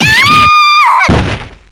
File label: super damage, jp; weiss